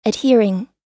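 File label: "adhering", US English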